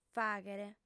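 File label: "fàghere", female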